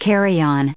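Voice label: "carry" English, us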